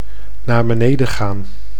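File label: "naar beneden gaan", Dutch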